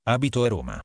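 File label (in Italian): "abito", italiano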